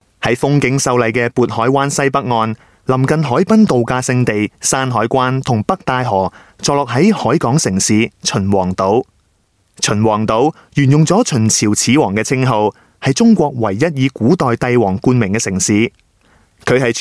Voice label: Cantonese Male

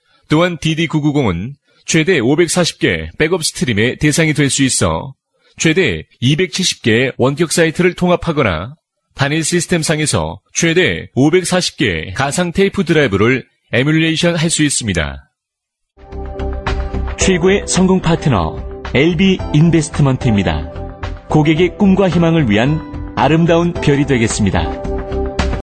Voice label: Korean Male

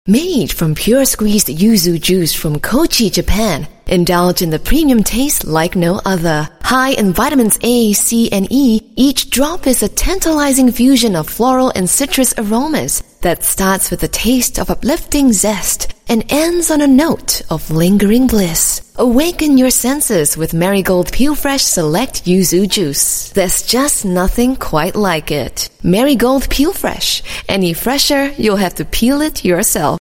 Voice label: Asian English, English Singaporean Female; Marigold

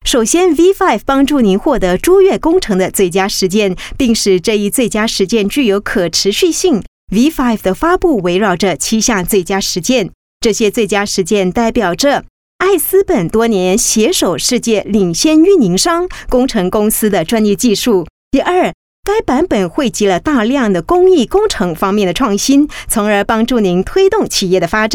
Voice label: Mandarin, Female; China

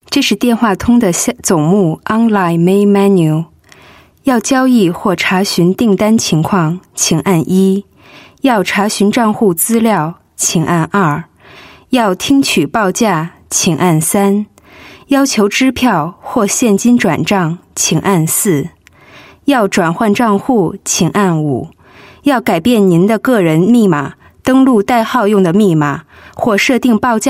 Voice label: Mandarin Clean, Mandarin China Female

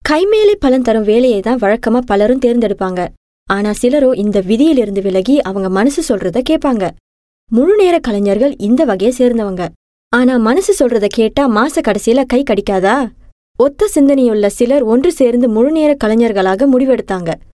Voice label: Tamil Sample, female; India Female

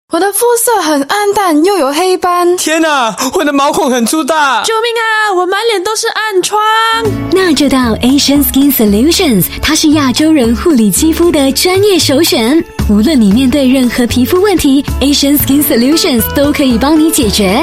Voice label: Mandarin, Mandarin China Female; Asian Skin Solutions

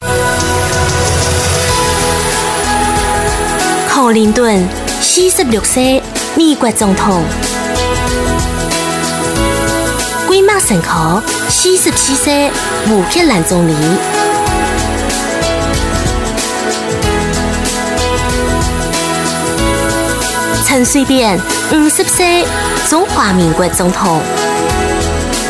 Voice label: County Election Hakka Taiwan, Female

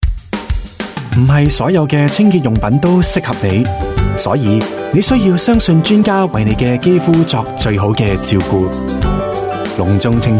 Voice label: Cantonese, Cantonese Male; Shampoo

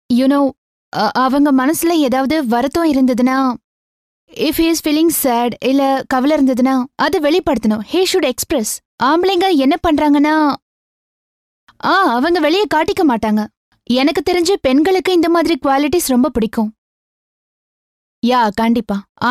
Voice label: Tamil South India Female

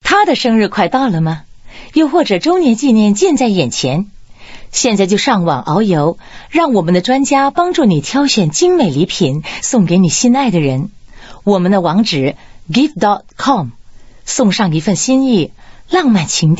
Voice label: Mandarin, Mandarin China Female

